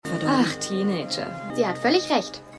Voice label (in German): Frau Imbiss Maedchen Imbiss; Was Frauen wollen